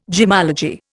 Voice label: "gemmology", Indian